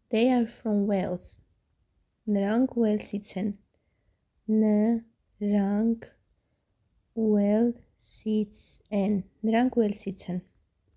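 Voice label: English "They are from Wales", Armenian "Nrank Uelsits en"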